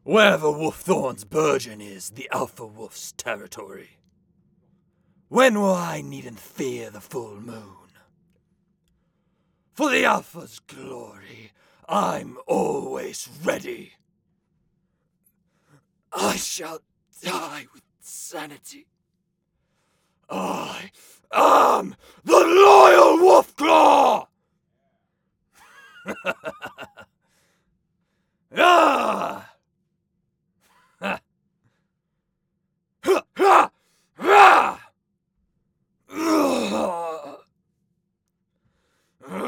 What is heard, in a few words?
Barbarian Sample